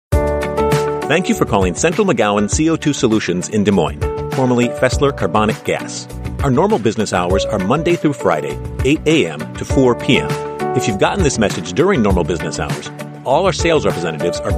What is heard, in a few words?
american male voice telephone